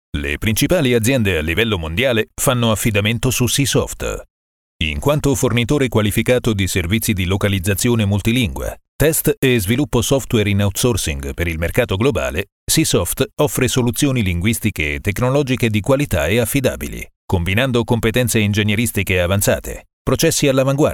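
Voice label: Italian Male, voiceover; Multilingual Voiceover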